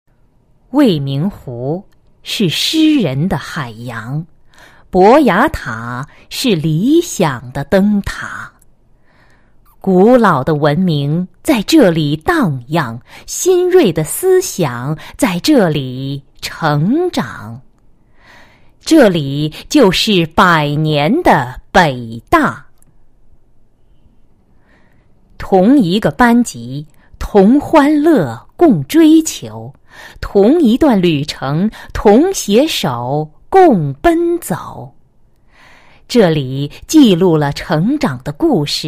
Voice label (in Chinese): 女 专题 宣传, 成熟知性; 百年北大宣传